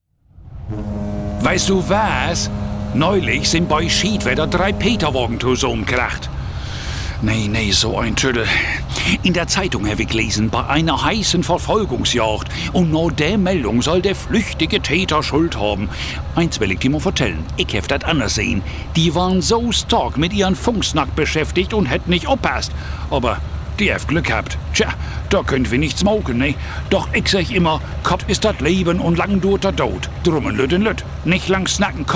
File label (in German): Deutsche Dialekte Hamburger